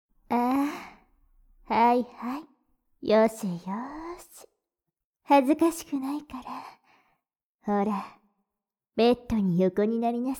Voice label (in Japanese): 安らぎの一時, ASMR